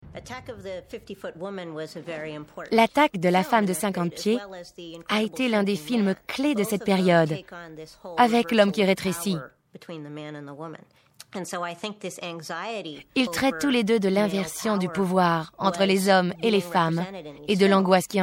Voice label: voice over documentaire

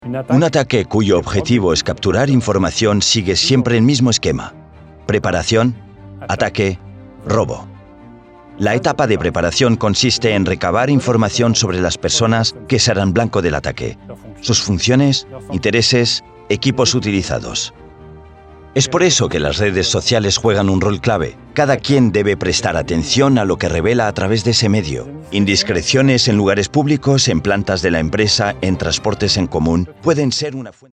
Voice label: Corporate

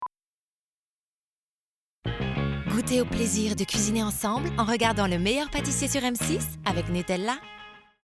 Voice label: Billboard; Le Meilleur Pâtissier

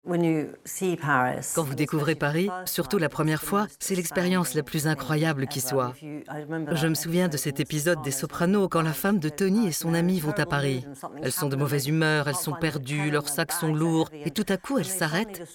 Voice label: Voice over French loving

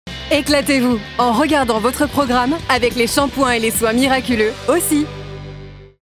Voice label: haircare rock BILLBOARD; Aussie

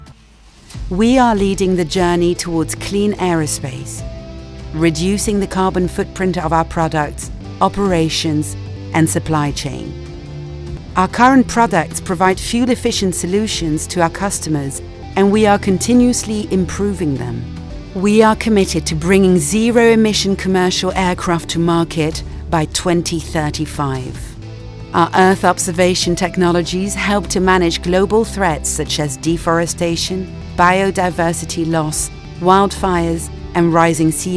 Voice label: ENG manifeste; Airbus

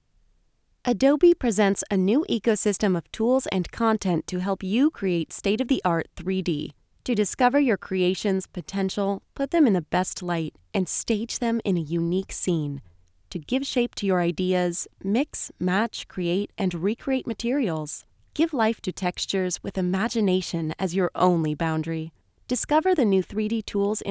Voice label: Corporate Informational elearning; Adobe

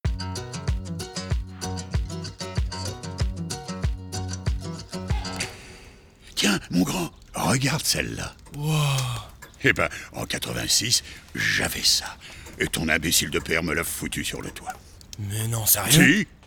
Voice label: radio; CREDIT AGRICOLE; ACHAT VOITURE

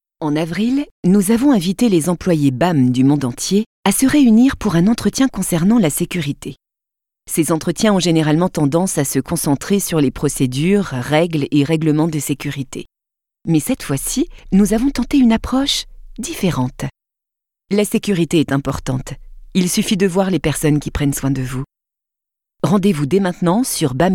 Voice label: safety corporate; Bam

